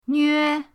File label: "nve1", pinyin